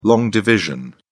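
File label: "long division", British English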